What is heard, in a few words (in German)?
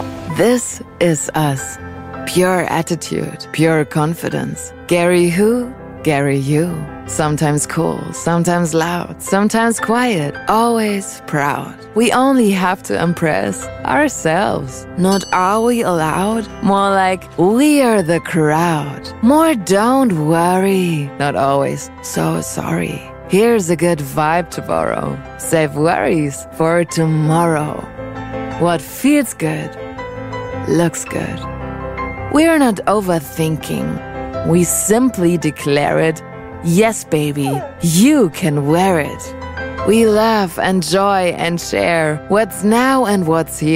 Werbung Imagefilm Englisch; Gerry Weber